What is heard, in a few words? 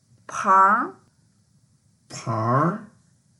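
"pangr2", Mandarin Chinese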